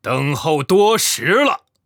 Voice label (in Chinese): 男